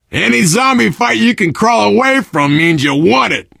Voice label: player transition close, player survivor voice biker; source engine; left 4 dead 2